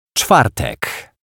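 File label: "Czwartek", Polish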